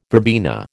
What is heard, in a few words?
"verbena", American